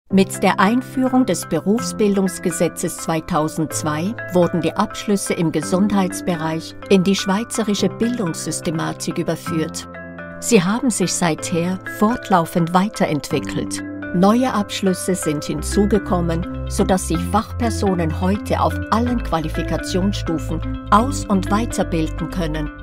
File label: german e-learning